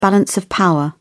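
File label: "balance of power", British English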